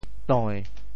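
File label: "toi~7", Teochew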